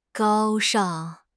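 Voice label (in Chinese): "高尚", Chinese; HSK; HSK6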